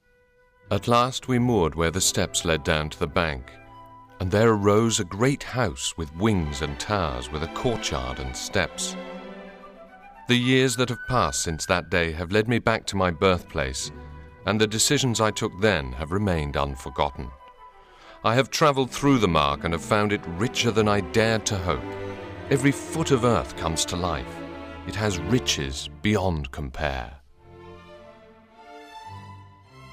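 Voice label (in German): British Erzählung, englisch; sprecher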